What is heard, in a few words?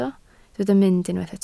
"mynd", Welsh